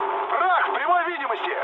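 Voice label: Russian enemy detected mood med, speech; War Thunder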